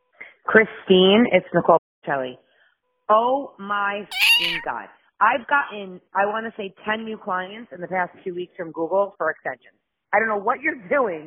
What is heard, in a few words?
SEO Voicemail; Cat Edit